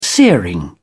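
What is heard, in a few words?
"searing", British English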